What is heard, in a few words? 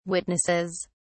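"witnesses", English, British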